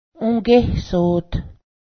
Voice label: grandmother, Cayuga